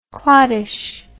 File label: "clottish", English